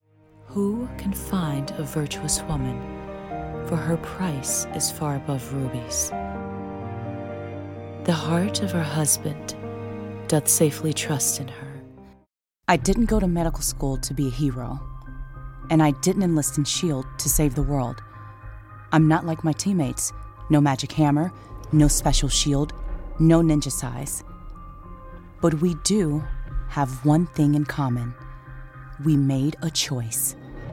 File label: Promo